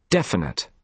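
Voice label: "definite", British English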